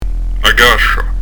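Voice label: "a cascia", Prononciation du corse; a g'aʃa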